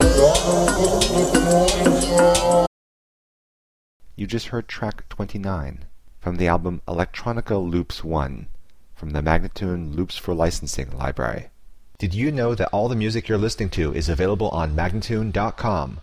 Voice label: 090 F spoken; Loops For Licensing; Electronica Loops 1